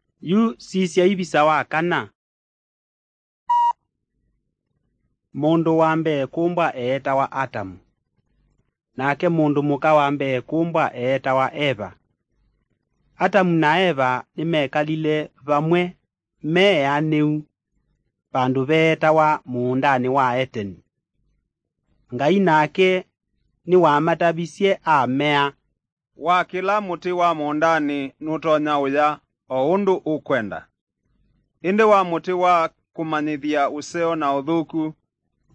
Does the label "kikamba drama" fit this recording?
Yes